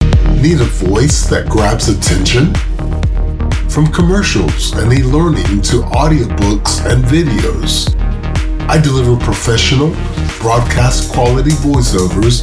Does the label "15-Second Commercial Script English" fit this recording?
Yes